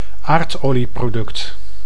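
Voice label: "aardolieproduct", Dutch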